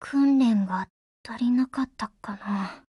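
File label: Japanese, Voice; BREAK; GF Alarm; SP9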